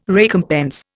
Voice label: "recompense", Indian